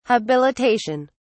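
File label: "habilitation", British English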